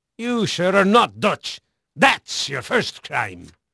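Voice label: Dutch male, voice; Pirates Of The Caribbean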